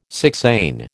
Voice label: "sixain", American

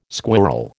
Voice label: "squirrel", American

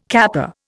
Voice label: "kappa", Indian

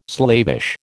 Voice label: "slavish", American

